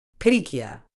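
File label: "petechia", medical English, British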